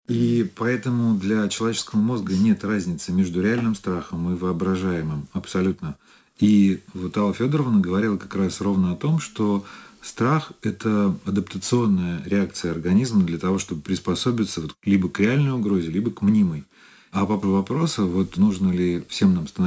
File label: Russian